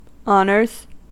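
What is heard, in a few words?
"honors", US English; pronunciations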